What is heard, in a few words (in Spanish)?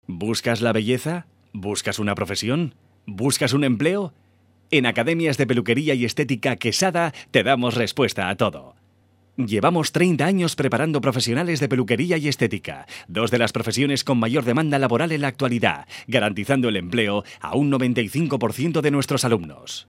locutor castellano